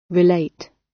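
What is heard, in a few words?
"relate", British; Language, linguistics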